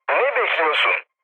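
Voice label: attack position, mood med, Turkish; War Thunder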